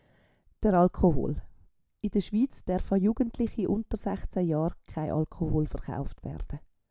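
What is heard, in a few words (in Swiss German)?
"de Alkohool", Swiss German; Wortschatz Kapitel 8; Kapitel 8 Zusatzwortschatz 2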